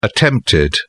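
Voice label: "attempted", English, British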